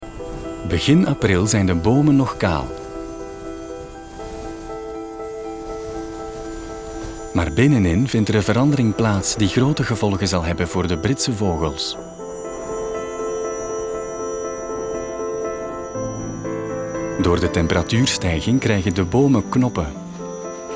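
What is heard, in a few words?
flemish male narration; dutch; voice demos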